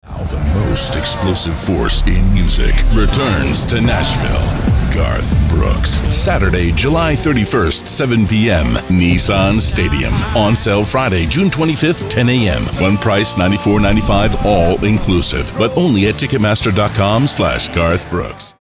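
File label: Promo English USA Male Promo, Last Stadium Tour Promos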